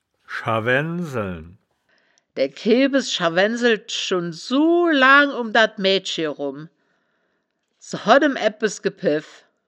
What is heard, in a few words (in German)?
"scha waennd seln", wittlich